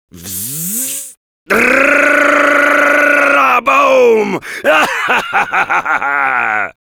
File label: Heavy yell, fr